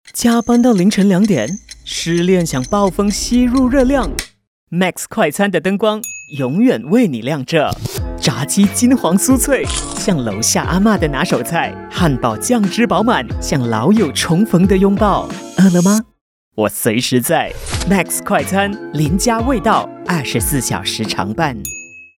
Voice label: Mandarin - Guy Next Door, Conversational, Friendly